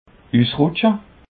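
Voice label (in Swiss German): "usrutsche", Alsatian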